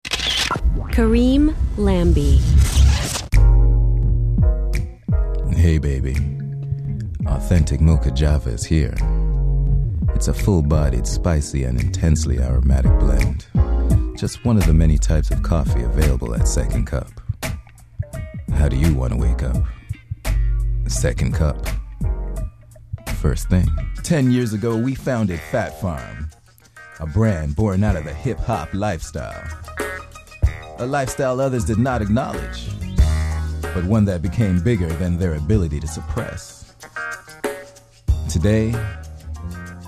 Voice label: commercial male